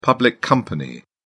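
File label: "public company", British English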